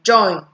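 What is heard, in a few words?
"join", Nigerian Pidgin, female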